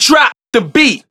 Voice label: Vocals

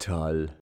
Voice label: "toll", shouts; Best Service; Hyper Dance

